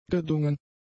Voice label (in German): "gedungen", German; adjectives